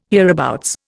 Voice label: "hereabouts", Indian